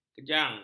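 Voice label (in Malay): "kejang", Malay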